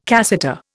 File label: "cassata", Indian